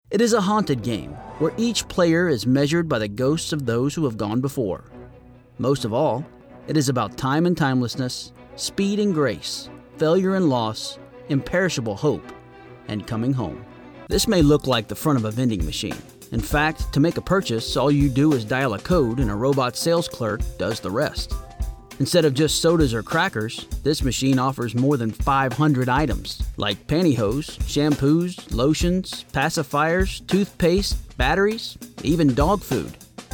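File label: Narration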